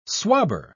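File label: "swabber", British English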